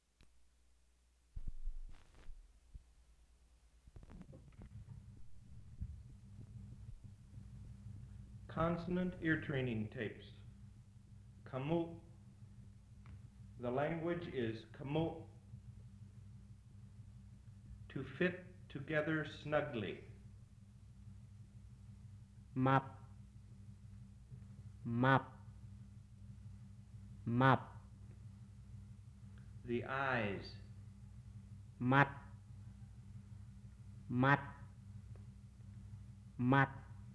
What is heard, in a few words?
Khmu